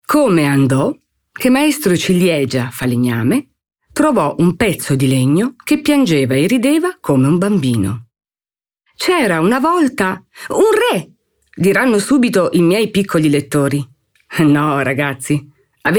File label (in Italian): Descrittivo Favola